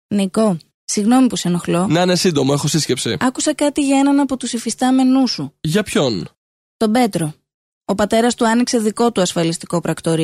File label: greek male voice acting, Upbeat Youthful Greek Voice